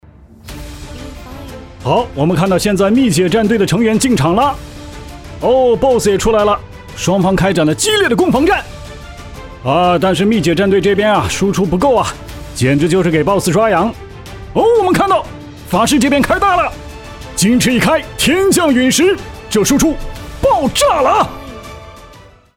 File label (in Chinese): 游戏解说